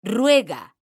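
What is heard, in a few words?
"ruega", Spanish, female; mekanta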